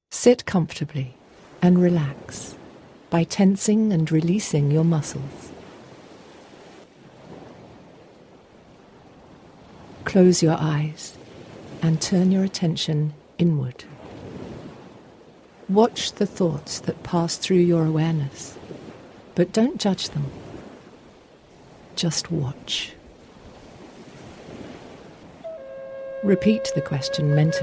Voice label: Meditation